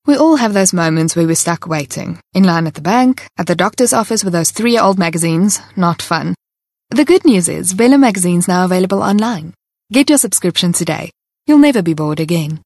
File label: English Corporate